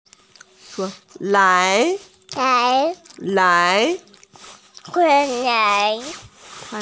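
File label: "lai2", Mandarin Chinese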